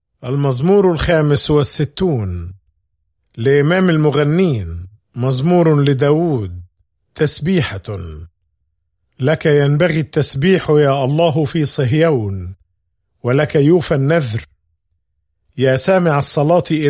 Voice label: Psalm, arabic bible; psalms